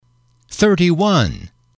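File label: "thirty one", English, male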